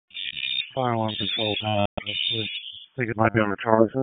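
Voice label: FIRE Operations, p25; wake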